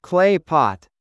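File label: "clay pot", English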